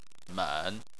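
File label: "men", Chinese, third tone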